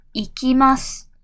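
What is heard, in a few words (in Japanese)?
"行きます", Japanese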